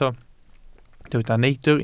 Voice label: "neidr", Welsh